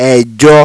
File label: "Ejo", Yoruba